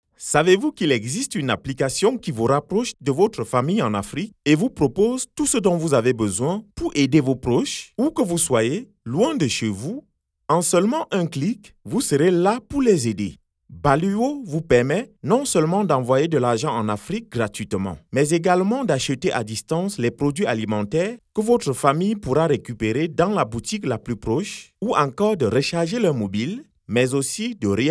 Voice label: Male African Voice Over, African French Voice Over